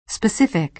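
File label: "specific", American English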